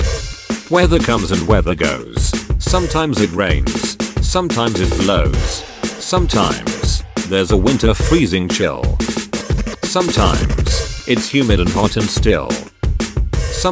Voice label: weather speech percussion; rhymes